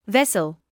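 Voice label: "vessel", British English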